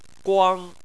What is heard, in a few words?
"guang1", Chinese Phonetics